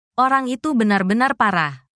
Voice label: indonesia, indonesian negative phrases

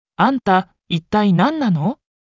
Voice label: anime

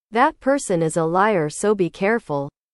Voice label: english

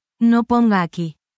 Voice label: spanish, spanish phrases

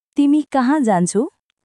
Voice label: Nepali, basic phrases

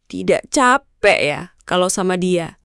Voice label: indonesian phrases

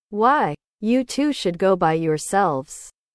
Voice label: english

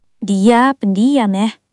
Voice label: indonesia, indonesian negative phrases

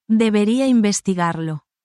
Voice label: spanish, spanish phrases

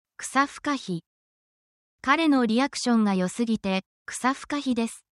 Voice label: japanese slang

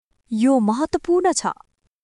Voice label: Nepali, basic phrases

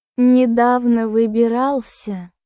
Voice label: russian phrases

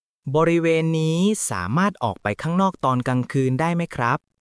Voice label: Thai, basic thai phrases; a list of 100 basic thai phrases you can use while traveling; タイ旅行で必須の「伝える力」を磨く！カタカナ発音で覚える基本の100フレーズ

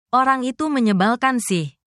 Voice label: indonesian phrases

